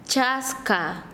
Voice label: "lucero", Quechua Chanka